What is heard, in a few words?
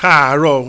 Kaaro o, Yoruba